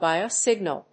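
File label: "BIOSIGNAL", English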